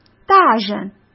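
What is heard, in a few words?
"大人" Mandarin Chinese; chinese-flashcards; hsk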